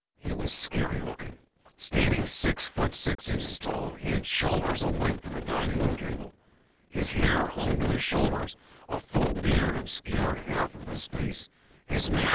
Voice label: male clean